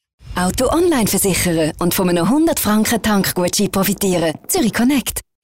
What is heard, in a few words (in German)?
schweizerin, Spots, frauen; ZurichConnect